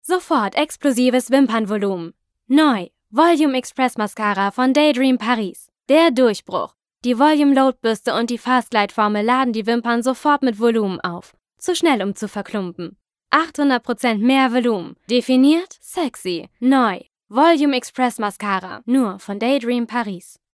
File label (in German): werbung deutsch